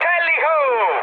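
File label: Italian enemy detected mood high, speech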